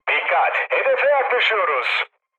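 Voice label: Turkish near waypoint, mood med, speech; War Thunder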